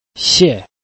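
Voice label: "xie4", Mandarin Chinese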